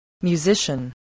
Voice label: "musician", voice

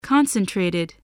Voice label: "concentrated", english

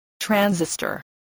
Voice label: "transistor", voice

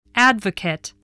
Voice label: "advocate", English voice

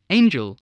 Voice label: "angel", spelling

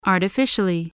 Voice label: "artificially", English

